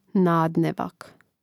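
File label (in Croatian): "nadnevak", Hrvatski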